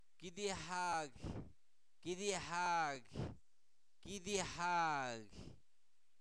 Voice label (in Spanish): Zapoteco Albarradas, santo domingo albarradas; INALI; cuerpo; oreja